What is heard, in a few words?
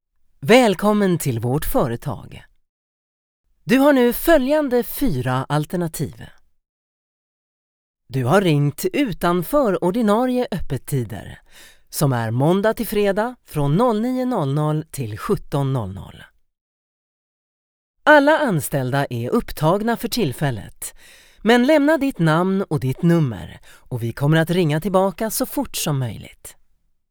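swedish interactive voice response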